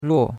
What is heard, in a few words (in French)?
"ruo4", chinois; Cours-de-Chinois